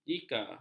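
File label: "jika", Malay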